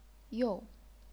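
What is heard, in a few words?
"you", chinese pinyin, fourth tone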